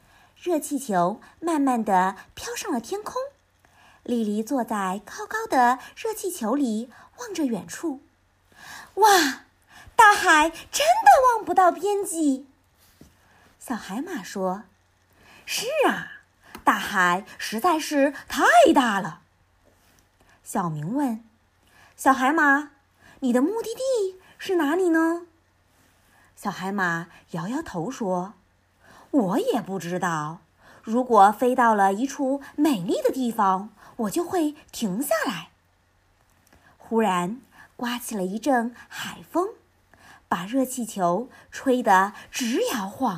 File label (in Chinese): 活泼元气女声少儿故事